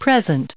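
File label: "present", us English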